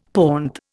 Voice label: "pont", Indian; Dictionary